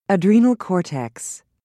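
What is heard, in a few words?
"adrenal cortex", British English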